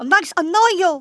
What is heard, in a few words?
"bye bye", Kamikaze; Worms Armageddon